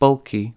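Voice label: "bulky", English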